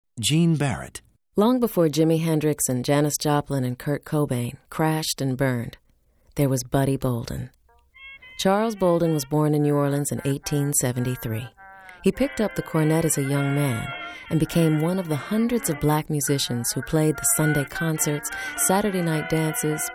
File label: narration, women